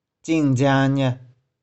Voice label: "cing2jiang1nih4", 玉環